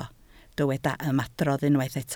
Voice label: "ymadrodd", Welsh